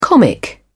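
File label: "comic", British English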